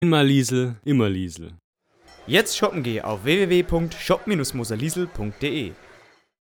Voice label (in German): Werbung Reminder; Arcobraeu